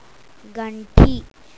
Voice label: bell, oriya